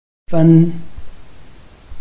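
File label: "fen", Chinese, tone 1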